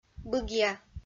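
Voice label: "быгъя", Komi, female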